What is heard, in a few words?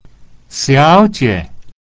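"xiao2jie3", romanized Mandarin; Miss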